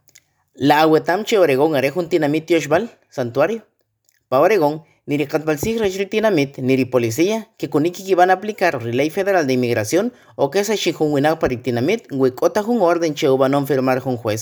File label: Kiche; Oregon’s Sanctuary Laws; Sanctuary Promise